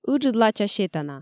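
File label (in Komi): "ыджыд лача сетана", Komi, female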